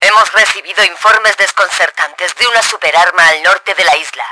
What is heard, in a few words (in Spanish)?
big gun, Spanish; Battle Engine Aquila; XBOX